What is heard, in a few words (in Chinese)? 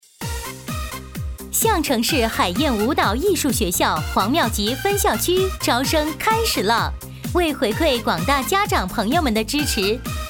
女 促销; 舞蹈艺术学